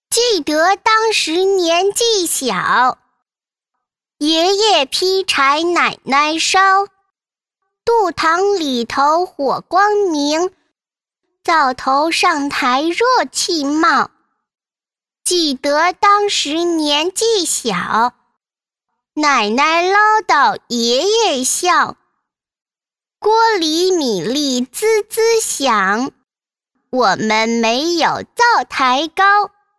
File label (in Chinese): 动画 童声 男童